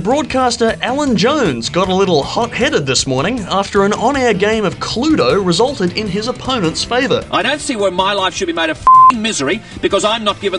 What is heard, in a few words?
News Canberra Health; SYN; The Magic Factory